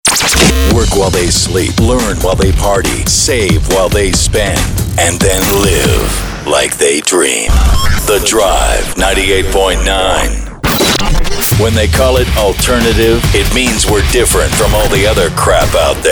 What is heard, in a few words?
Imaging Modern Rock